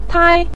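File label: "tai" neutral tone, Mandarin Chinese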